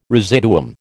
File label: "residuum", American